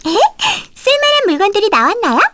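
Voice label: Vox Victory, Korean; kingsraid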